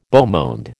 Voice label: "beau monde", American